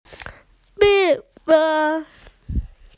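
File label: "mi4feng1", Mandarin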